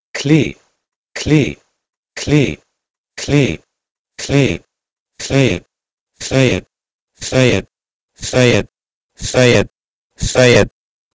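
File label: Proto-Indo-European klei to Panjabi aasara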